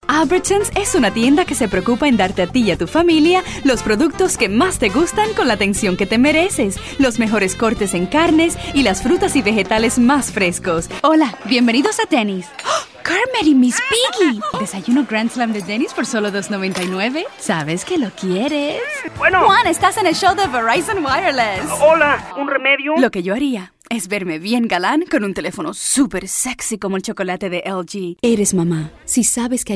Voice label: commercial Spanish